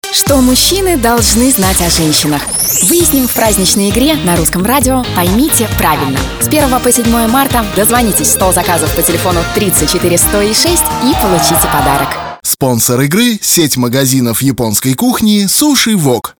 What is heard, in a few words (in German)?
Beispiel russisch